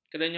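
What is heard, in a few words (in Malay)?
"kerenyot", Malay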